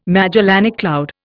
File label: "Magellanic cloud", Indian